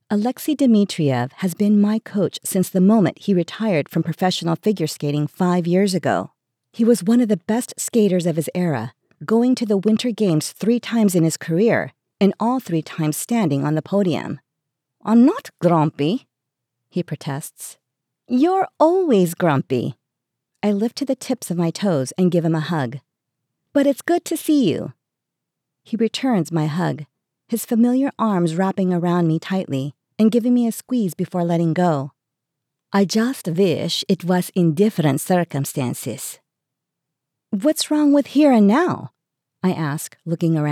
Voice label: New Adult Romance 1st Person Russian Accent MF